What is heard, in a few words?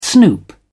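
"snoop", British English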